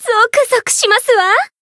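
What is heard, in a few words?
Voice Japanese; pom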